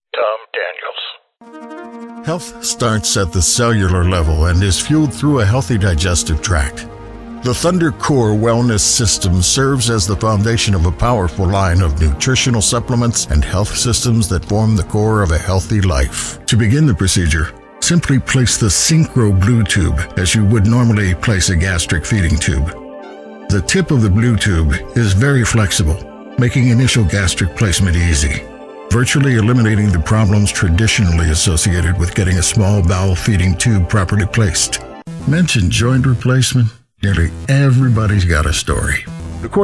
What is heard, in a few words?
medical narration